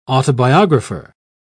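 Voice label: "autobiographer", British English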